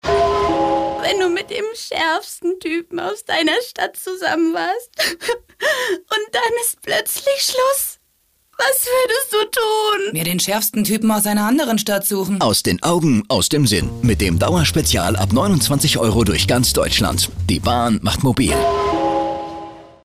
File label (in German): Sprecherin; WERBUNG; DB DEUTSCHE BAHN: Dauerspezial Schärfster Typ